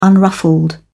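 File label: "unruffled", English, British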